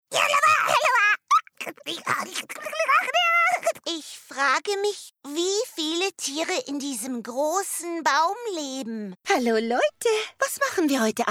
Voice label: german animation